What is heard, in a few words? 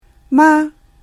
"吗" ma, chinese